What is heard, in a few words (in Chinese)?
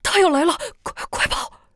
幼年